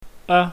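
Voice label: "a", Cantonese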